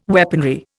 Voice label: "weaponry", Indian

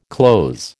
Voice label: "clothes", American

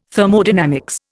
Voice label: "Thermodynamics", Indian

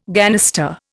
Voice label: "ganister", Indian

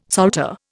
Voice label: "salter", Indian

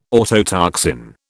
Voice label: "autotoxin", British

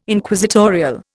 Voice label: "Inquisitorial", Indian

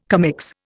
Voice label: "commix", Indian

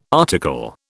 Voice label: "article", British

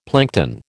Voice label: "plankton", American

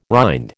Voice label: "rind", American

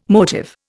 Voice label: "motive", Indian

